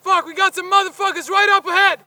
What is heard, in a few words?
spot; bf3